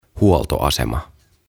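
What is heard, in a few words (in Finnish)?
"Huoltoasema", Finnish; OTAVA KONSERNI; aantamisohjeet suomeksi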